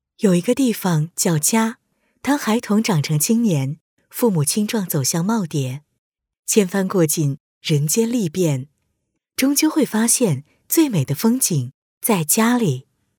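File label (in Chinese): 中文宣传地产宣传片; 金科地产宣传片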